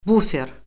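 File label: "bufer", Azerbaijani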